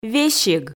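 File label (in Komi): "весиг", Komi, female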